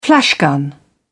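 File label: "flashgun", British English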